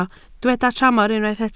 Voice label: "tramor", Welsh